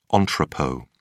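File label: "entrepot", English, British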